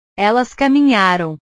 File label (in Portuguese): "elas caminharam", portugues